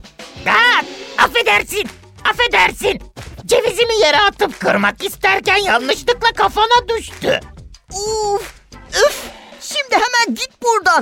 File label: turkish m character; voice demos